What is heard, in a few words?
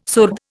"surd", Indian